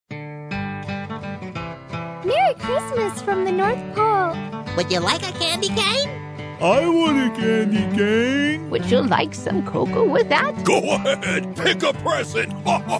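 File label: promo pack narration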